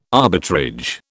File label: "arbitrage", British